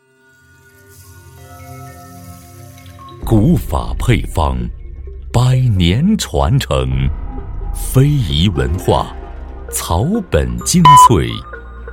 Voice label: 男 广告

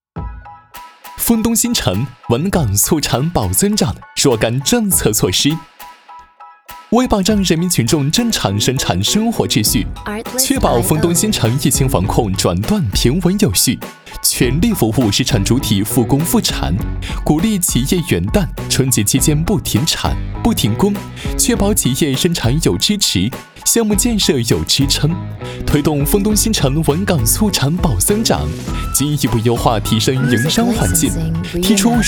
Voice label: 男 广告活力; 沣东新城

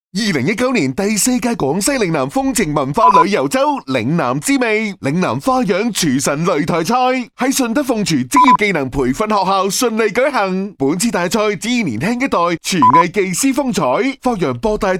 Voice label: 男【广告】; 厨艺大赛; 粤男

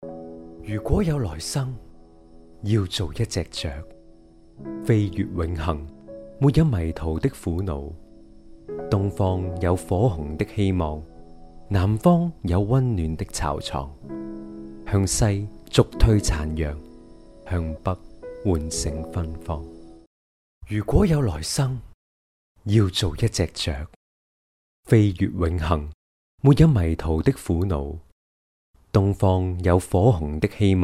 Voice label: 粤男 旁白

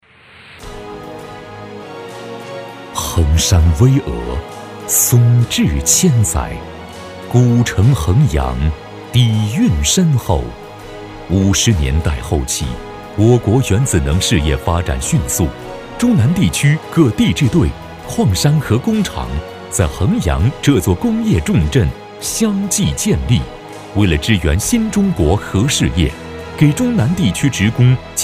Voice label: 男, 宣传; 药学部

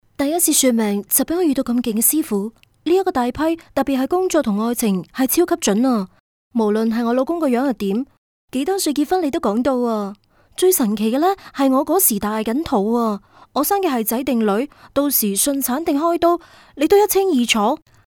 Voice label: 粤 女 角色; 師傅

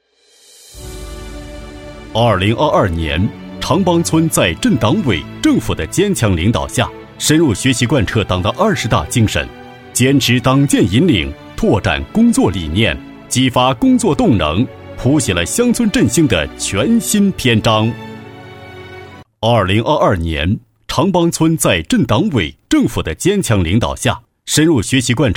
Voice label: 【党建】, 男; 长浜

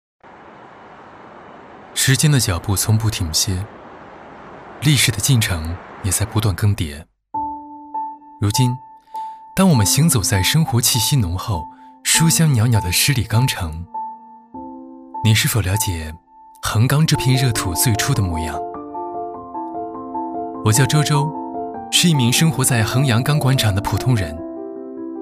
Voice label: 男 宣传 年轻; 衡阳钢管厂

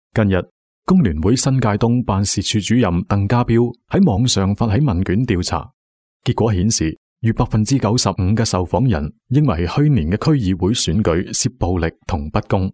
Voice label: 粤男; 男【专题】問卷調查

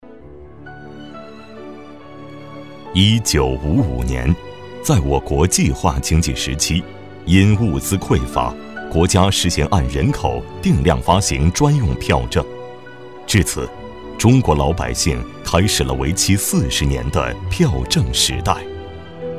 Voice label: 男【宣传】; 淮安博物馆

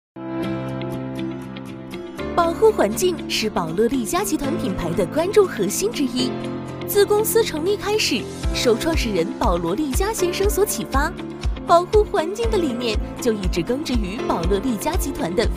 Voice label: 女 保护环境

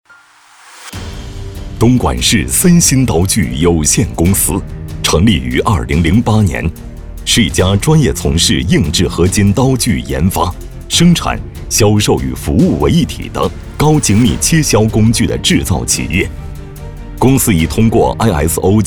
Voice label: 男 宣传片; 森鑫公司简介

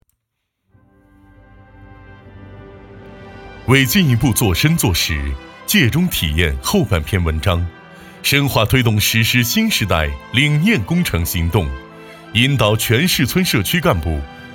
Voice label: 男 宣传片; 海宁市村

